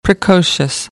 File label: "precocious", American English; ə - ɪ